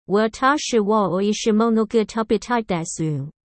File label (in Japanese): "わたしわおいしいものがたべたいです" Chinese